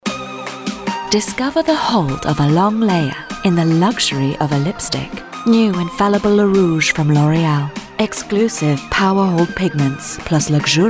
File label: female German English with undetectable accent commercial compilation